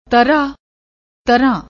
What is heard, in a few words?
"tarhaa", Punjabi